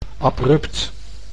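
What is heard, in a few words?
"abrupt", Dutch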